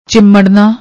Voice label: "chimadna", Punjabi; ਚਿੰਮੜਨਾ